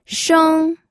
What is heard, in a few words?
"sheng1", pinyin